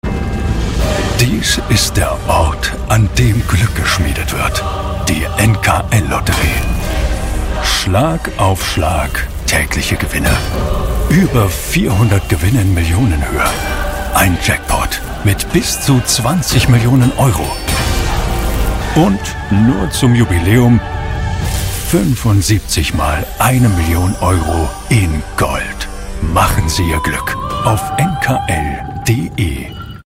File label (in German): Hoerprobe Werbung; NKL; Episch